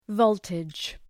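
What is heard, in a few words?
"voltage", English word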